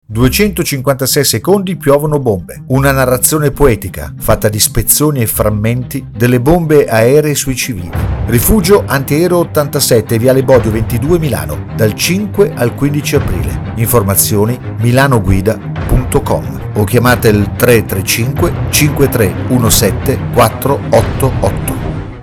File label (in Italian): spot radio